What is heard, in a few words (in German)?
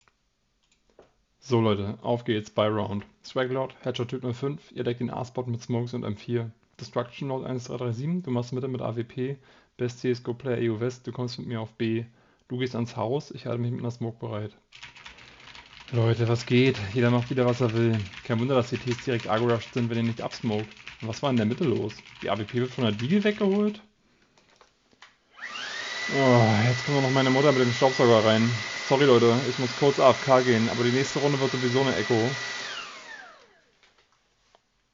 Testaufnahme Funk; Turtle Beach Stealth 700 Gen 2